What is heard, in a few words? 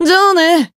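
Voice finish, Japanese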